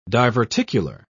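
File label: "diverticular", British English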